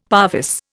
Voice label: "parvis", Indian; Dictionary